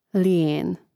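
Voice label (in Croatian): "lijen", Croatian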